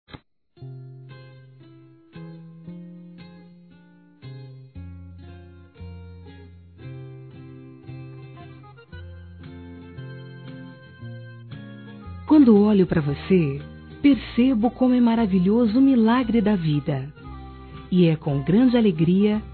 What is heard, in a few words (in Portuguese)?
ANIVERSÁRIO NETA F, Telemensagens, Fem; Aniversários; Aniv Familiar